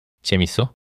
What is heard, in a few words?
neutral